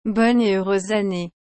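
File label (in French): "Bonne et heureuse année", French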